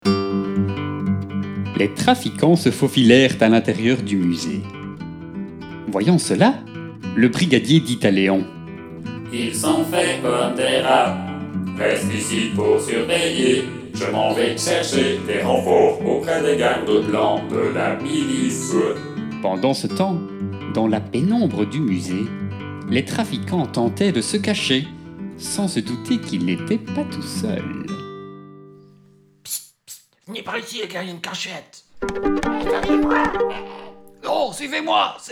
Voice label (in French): NARRATION